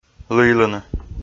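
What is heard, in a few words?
"лыйлыны", Komi, male; kpv audio dict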